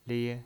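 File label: "lie", pinyin, Mandarin Chinese, first tone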